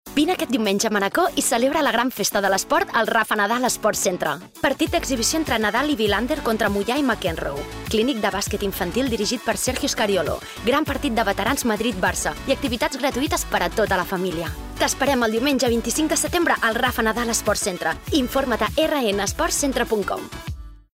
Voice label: female catalan sport center comercial

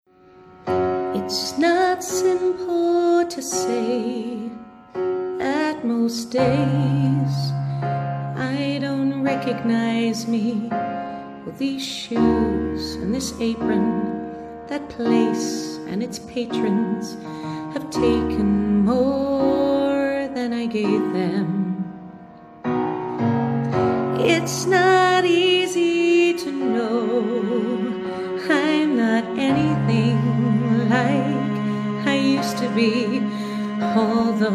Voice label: female british english commercial

